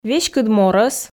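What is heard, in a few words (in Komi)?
веськыд морӧс, Komi, female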